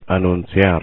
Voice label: "anunciar", Spanish